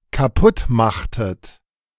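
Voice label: "kaputtmachtet", German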